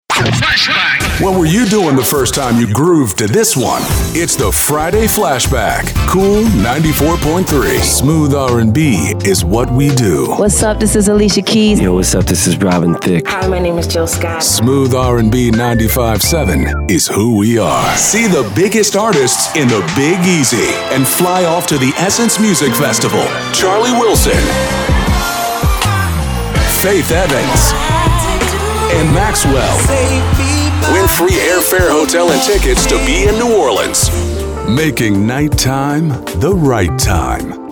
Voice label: radio ac urban, radio imaging

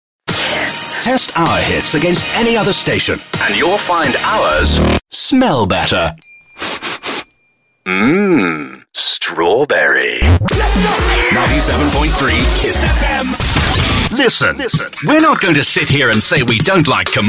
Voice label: radio CHR Hot AC, radio imaging

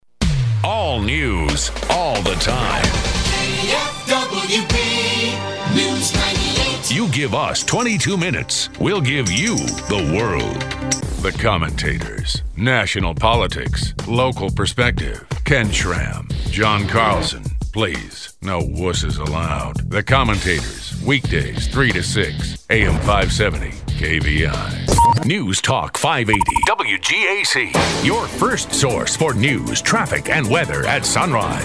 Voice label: radio, radio imaging

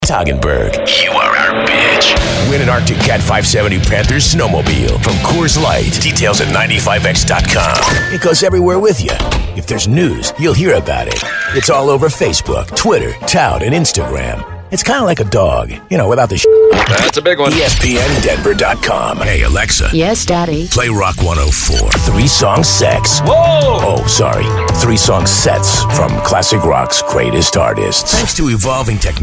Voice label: radio imaging men

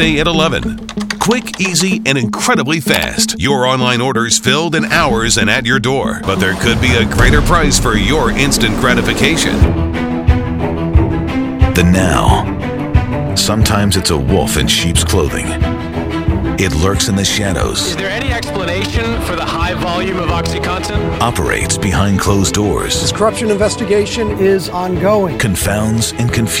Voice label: tv affilitate news; tv affiliate

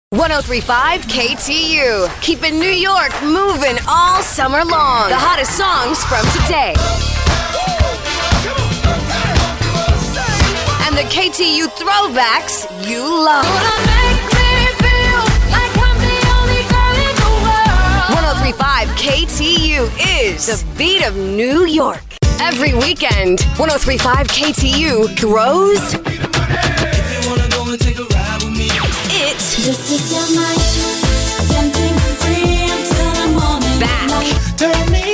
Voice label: radio women hot ac, radio imaging

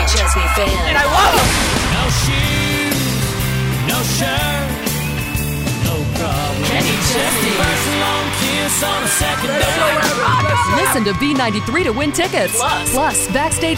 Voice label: radio imaging female country

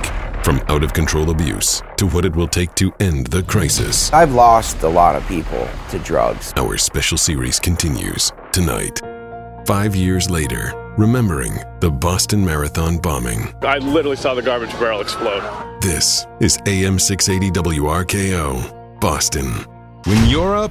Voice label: radio men news talk, radio imaging

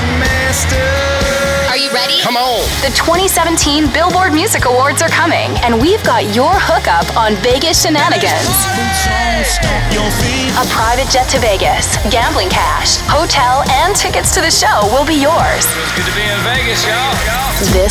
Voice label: radio female country; radio imaging

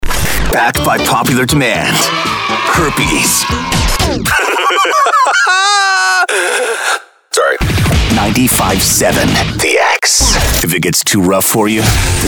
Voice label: radio rock, radio imaging

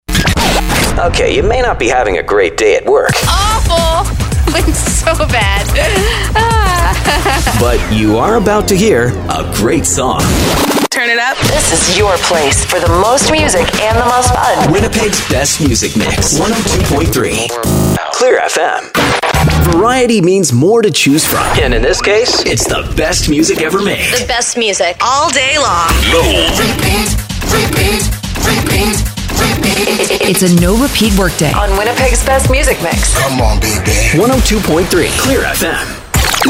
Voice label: radio hot ac and chr, radio imaging

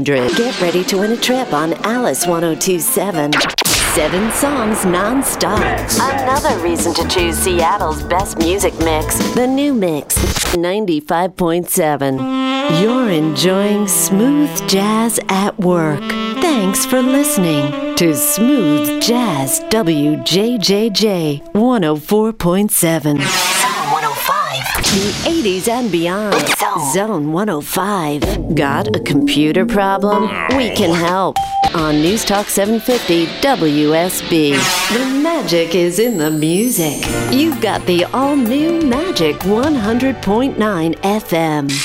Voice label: radio imaging female

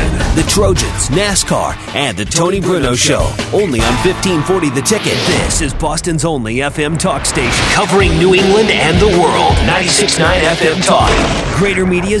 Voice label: sports, radio imaging

